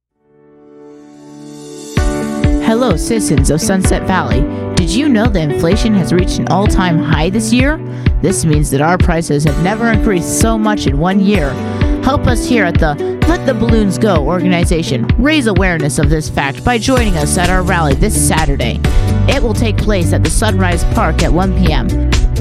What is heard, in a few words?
Commercial